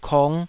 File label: "kong5", taiwanese syllables